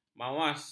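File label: "mawas", Malay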